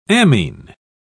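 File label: "ammine", English, British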